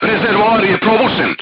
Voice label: voice message fuel tank damaged, serbian crew tanks